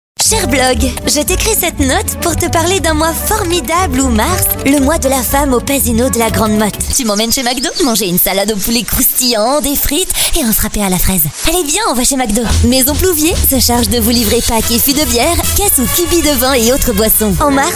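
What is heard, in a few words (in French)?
French, female, commercial; voice demos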